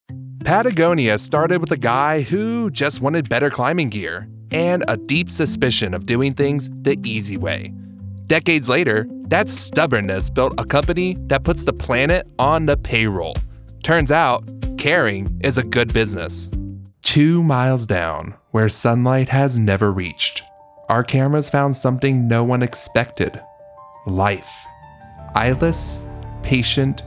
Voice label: narration